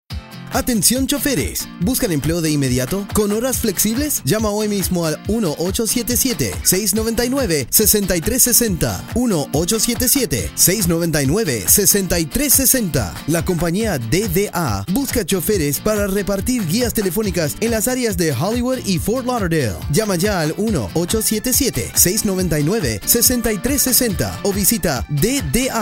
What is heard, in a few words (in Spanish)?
Span; Hollywood Forth Lawderdale